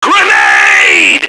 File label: grenade, radio, male